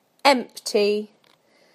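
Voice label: "empty", British English; PictureDictionary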